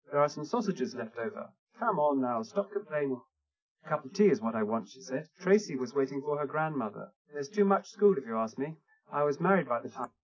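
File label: male speech; SiSEC10; underdetermined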